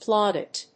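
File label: "plaudit", English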